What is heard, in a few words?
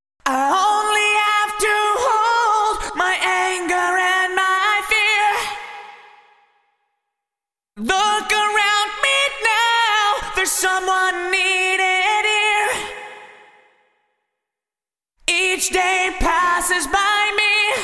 Vocals Yamaha SPX2000 Rev X Vocal Plate 1.7s PD100ms Vintage Digital